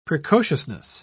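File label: "precociousness", British English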